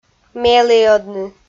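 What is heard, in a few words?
"меліӧдны", Komi, female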